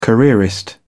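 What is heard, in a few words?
"careerist", British English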